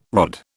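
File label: "rod", British English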